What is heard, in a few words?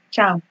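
"charm" Nigerian Pidgin, female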